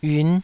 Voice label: "yiun5", Taiwanese